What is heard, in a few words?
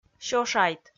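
"сёшайт" Komi, female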